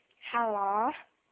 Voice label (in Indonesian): cewek halo